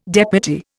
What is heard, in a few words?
"deputy", Indian